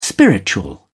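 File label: "spiritual", British English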